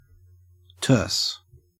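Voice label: "terse", Australian; pronunciations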